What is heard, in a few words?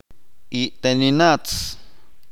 the same thing, chalchiteko